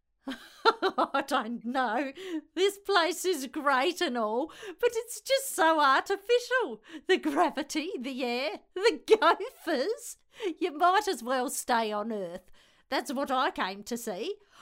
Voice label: Old Lady